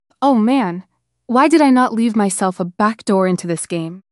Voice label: upset; fertig classic games; nicole